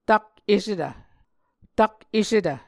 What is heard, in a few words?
"tak-esetv", Muscogee